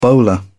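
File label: "bowler", English, British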